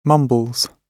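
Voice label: "mumbles", British English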